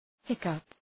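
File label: "hiccup", English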